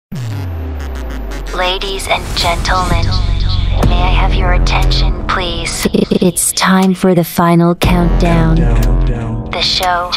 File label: female voice epic countdown; DJ INTRO; SHOW OPENER